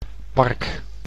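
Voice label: "park", Dutch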